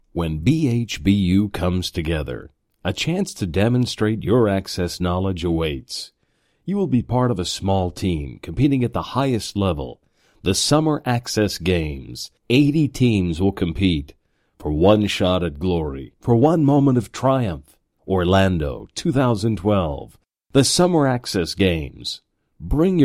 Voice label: Corporate, American Corporate

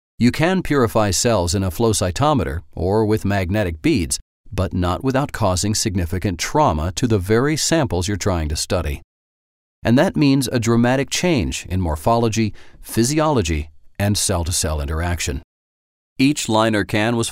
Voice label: American Corporate; Voice-over demos

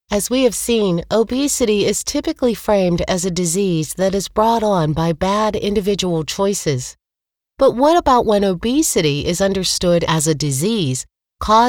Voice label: Audio books American; USA

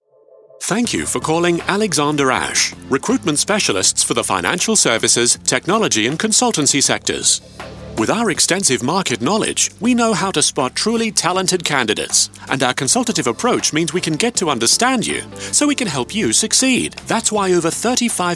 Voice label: On Hold; Voice-over demos; on hold voices

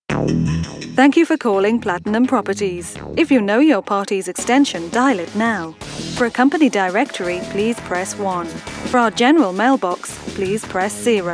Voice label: On Hold; Voice-over demos; on hold voices

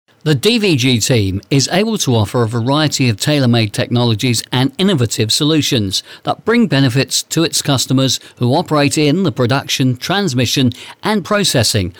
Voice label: Corporate, UK; Voice-over demos

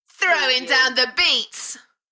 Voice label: UK female, Throwing down the beats, Enthusiastic; dance; beanstalk audio

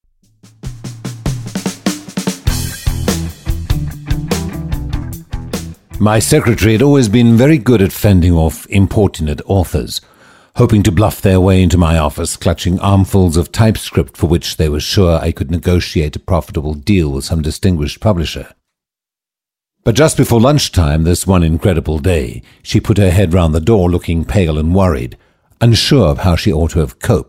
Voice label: Audiobooks; Voice-over demos

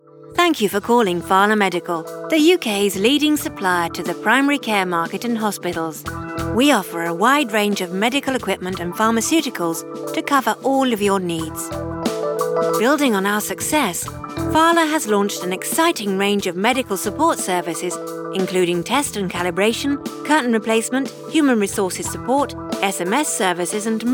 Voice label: On Hold; Voice-over demos; on hold voices

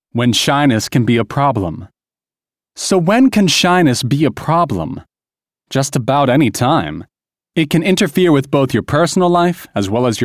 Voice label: audio books, American; USA

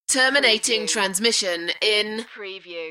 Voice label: UK female, Terminating transmission in 1; tech; beanstalk audio

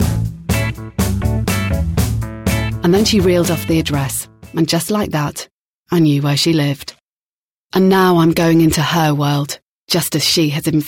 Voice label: audiobook

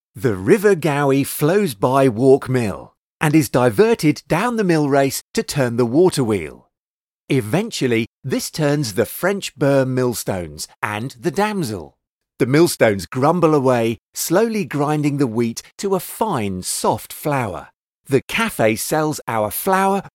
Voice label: Corporate, UK; Voice-over demos

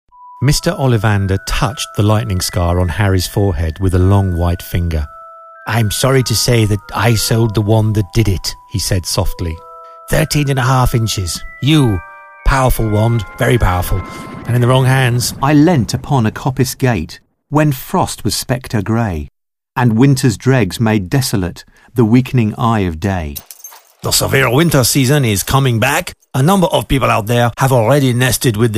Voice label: Audiobooks

